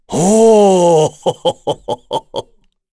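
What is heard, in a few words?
Vox Happy, Korean; voices; heroes; Dakaris; kingsraid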